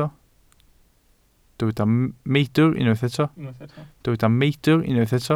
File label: "meidr", Welsh